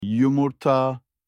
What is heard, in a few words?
egg in turkish